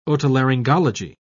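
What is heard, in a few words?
"otolaryngology", British English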